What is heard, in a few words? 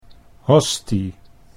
"hostie", Dutch